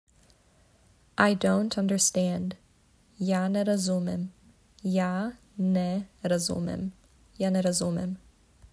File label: "I don't understand", Serbian